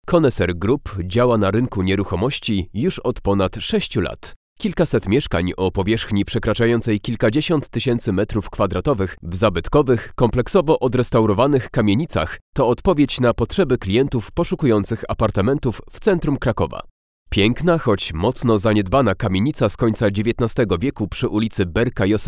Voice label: polish male